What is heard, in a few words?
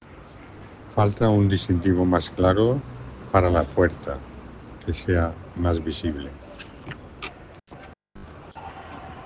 Voice message